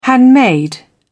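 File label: "handmade", British English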